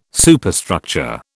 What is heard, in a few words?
"Superstructure", British